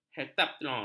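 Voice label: "heptatlon", Malay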